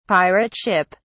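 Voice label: "pirate ship", British English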